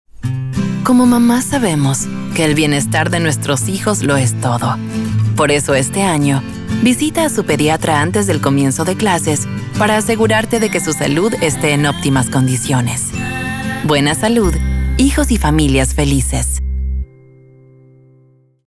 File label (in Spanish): Carinoso Mama Caring Motherly LA